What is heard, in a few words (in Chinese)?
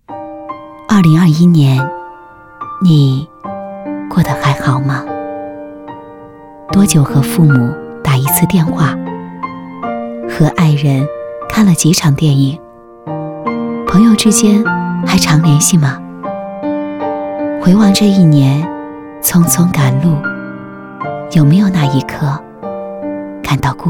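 旁白 女 新年情怀视频; 融汇城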